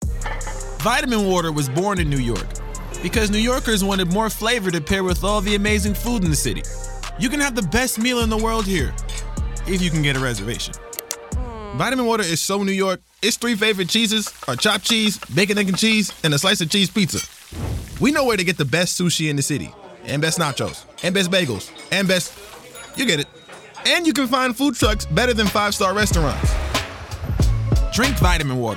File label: Voiceover, Commercial, Men; New York; Stewart Talent; Commercial Demo